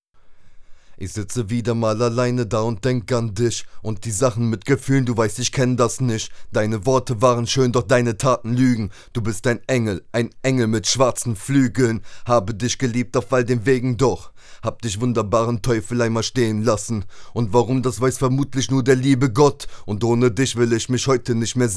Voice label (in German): rec platz raum mitte blick richtung ahp, vocal aufnahmen